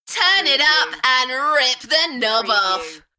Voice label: UK female, Turn it up and rip the knob off, Enthusiastic; beanstalk audio